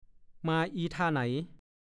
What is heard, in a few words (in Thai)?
"มาอีท่าไหน", ภาษาโคราช; จะมาแบบไหน จะมาทำนองไหน มาอีแบบไหน ก็พูด